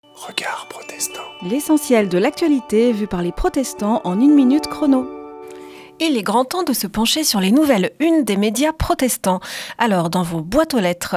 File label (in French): Spot radio; Regards protestants